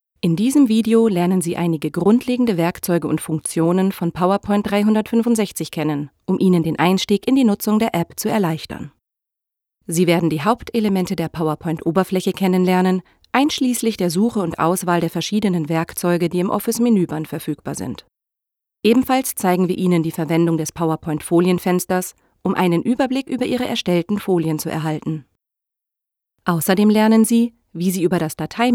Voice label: E-Learning